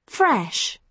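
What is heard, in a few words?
"fresh", British English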